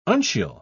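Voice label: "uncial", British English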